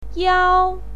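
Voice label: "yao1", Mandarin Chinese pinyin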